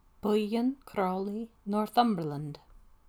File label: Northumberland Curling Club, Gaelic